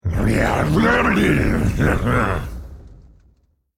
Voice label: male murloc elemental greetings